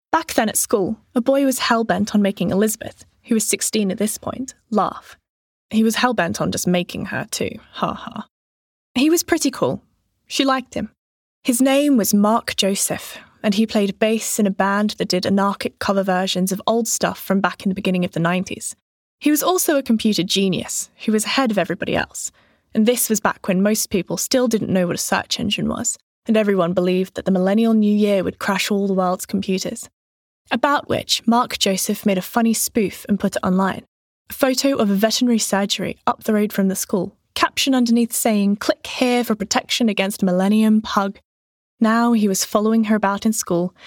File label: Audiobook, regional accents